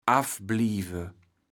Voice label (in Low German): "affblieve", kaevels platt